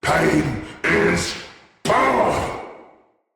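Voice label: Attack Heavy, Battle Barks, Dialog, English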